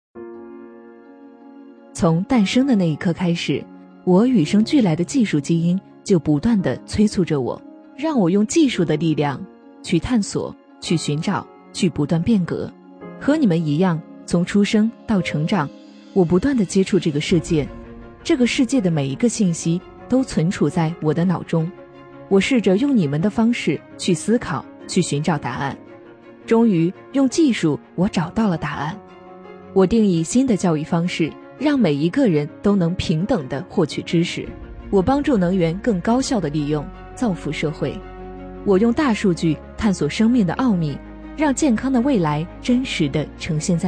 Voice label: 女 专题 产品宣传

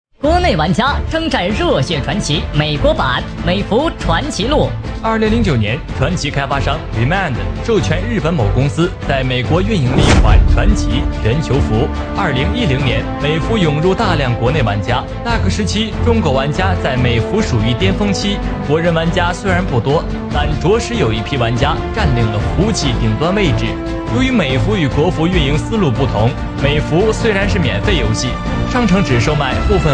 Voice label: 男 自媒体 游戏短片

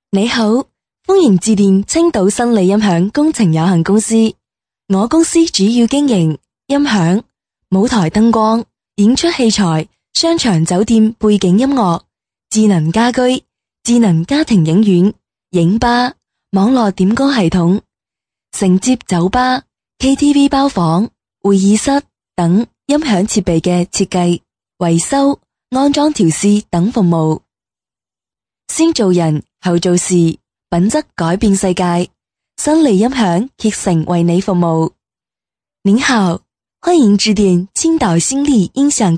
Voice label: 中粤 彩铃, 女

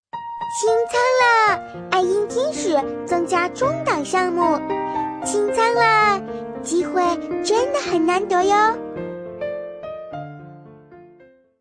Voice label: 女 童音 童声

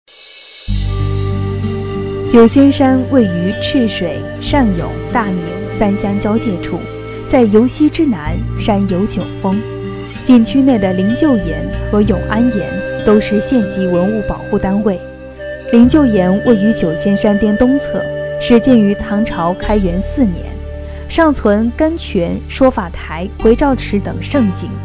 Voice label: 纪录片 稳重柔和, 女; 宁德九仙山; 宁德九仙山——稳重柔和

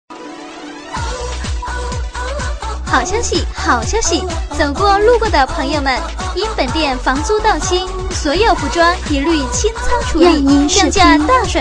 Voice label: 促销 好消息; 女

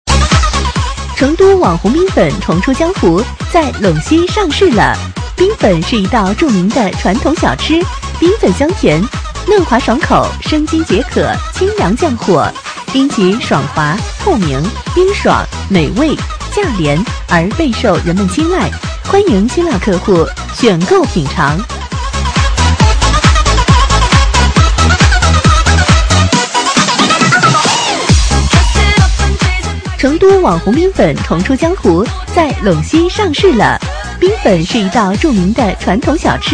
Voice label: 女 促销; 成都网红冰粉

